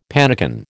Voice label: "pannikin", American

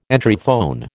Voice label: "Entryphone", American

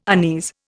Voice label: "unease", Indian

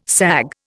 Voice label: "sag", Indian

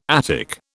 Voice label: "Attic", British

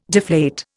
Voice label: "deflate", Indian

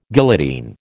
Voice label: "guillotine", American

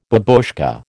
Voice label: "babushka", American

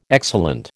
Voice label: "excellent", American

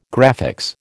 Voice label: "graphics", American

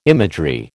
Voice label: "imagery", American

